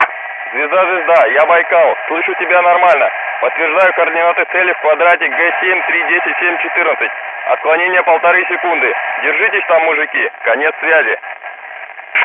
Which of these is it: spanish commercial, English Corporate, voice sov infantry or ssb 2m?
voice sov infantry